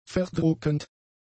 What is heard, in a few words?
"verdruckend", German; adjectives